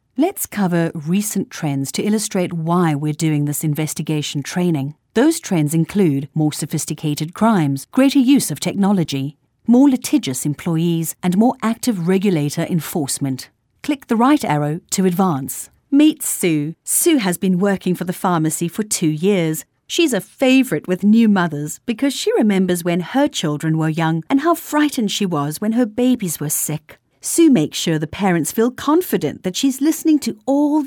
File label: E-learning